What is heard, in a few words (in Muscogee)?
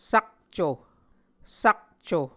"sakco", Muscogee